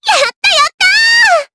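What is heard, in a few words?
Vox Victory, voices, Japanese; Dosarta; kingsraid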